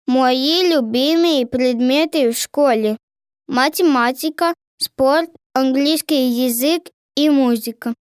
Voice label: RUS